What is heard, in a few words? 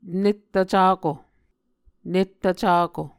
"nettvcako", Muscogee